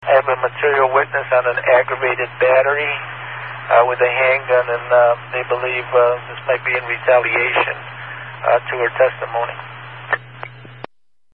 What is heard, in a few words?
Police Scanner Chatter